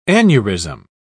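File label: "aneurism", British English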